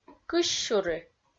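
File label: "кысь сюри", Komi-Zyrian, female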